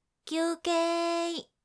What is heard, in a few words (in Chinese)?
休ー息, 女生 少女; 休息时间用